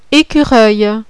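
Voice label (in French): "écureuil", French; CHASSER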